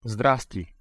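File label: pronunciation "sdrasti", Bulgarian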